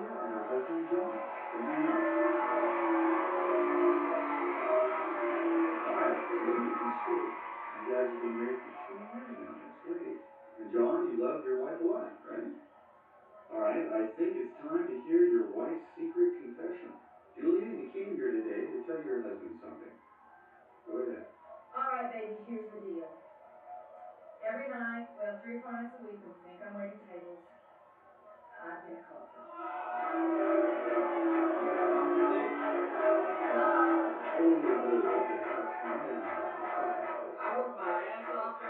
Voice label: Television; Rowdy Talk Show With Announcer And Guests, Music And Crowd Noise. From Down Hallway.